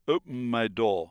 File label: casual